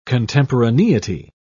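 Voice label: "contemporaneity", British English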